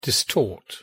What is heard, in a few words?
"distort", word